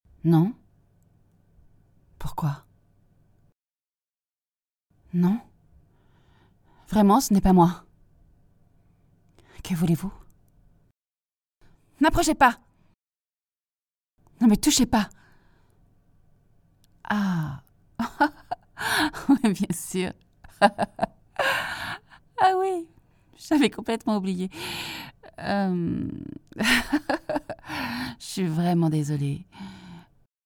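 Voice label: doblaje, French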